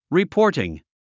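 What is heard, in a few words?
"reporting", English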